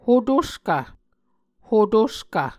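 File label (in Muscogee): "hotoskv", Muscogee word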